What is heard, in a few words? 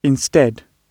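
"instead", British English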